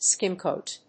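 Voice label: "skim coat", English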